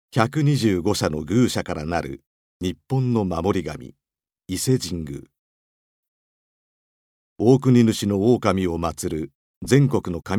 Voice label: 神社紹介番組ナレ

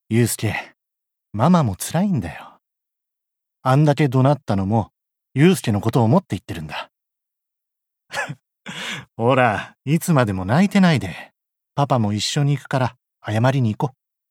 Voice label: 息子に語りかけ

